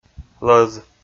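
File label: "лӧз", Komi, male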